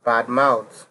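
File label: "badmouth", Nigerian Pidgin, male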